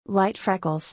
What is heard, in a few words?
Light freckles, English